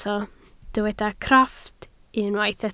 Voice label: "crofft", Welsh

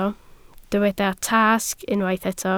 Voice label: "tasg", Welsh

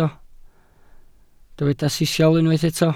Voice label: "sisial", welsh

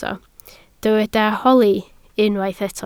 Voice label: "holi", Welsh

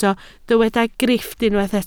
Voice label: "grifft", Welsh

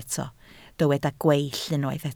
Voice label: "gweill", Welsh

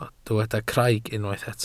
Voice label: "craig", Welsh

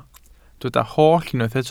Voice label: "holl", Welsh

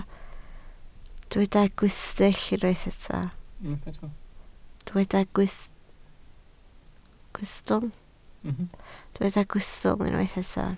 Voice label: "gwystl", Welsh

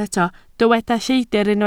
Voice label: "lleidr", Welsh

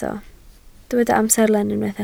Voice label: "amserlen", Welsh

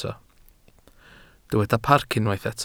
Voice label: "parc", Welsh

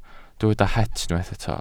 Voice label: "het", Welsh

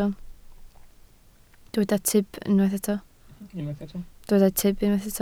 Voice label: "tyb", Welsh

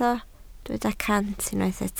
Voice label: "cant", Welsh

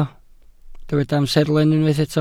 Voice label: "amserlen", Welsh

